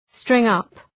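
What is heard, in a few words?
"string-up" English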